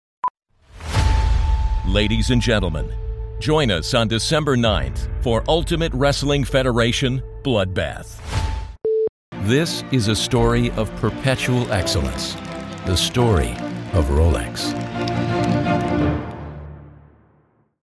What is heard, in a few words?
dramatic VO